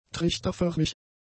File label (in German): "trichterförmig", German; adjectives